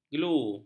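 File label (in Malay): "glu", Malay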